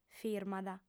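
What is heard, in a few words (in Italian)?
"firmat", lingua sarda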